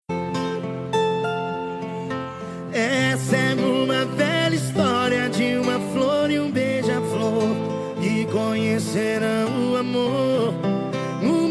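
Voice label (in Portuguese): aniversario esposa masculino, telemensagem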